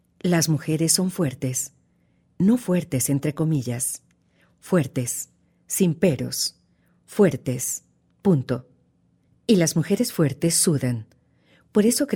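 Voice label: Spanish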